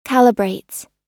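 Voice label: "calibrates", American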